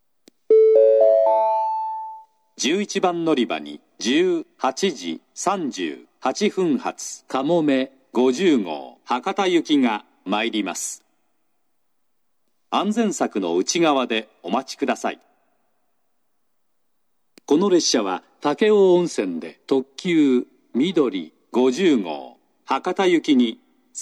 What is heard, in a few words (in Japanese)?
嬉野温泉, 11番のりば接近予告放送; かもめ50号 博多行き; 武雄温泉でみどり50号に接続